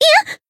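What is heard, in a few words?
Voice damaged, Japanese; pizzica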